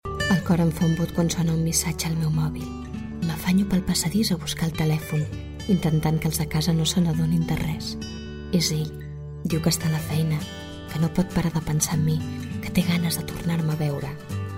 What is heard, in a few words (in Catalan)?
catala